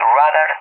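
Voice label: "radar", English; Target; DCS; Allied Flight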